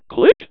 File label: Windows Navigation Start, DECtalk; Aksel Christoffersen; DECtalk Sounds For Windows